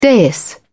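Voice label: "dais", British English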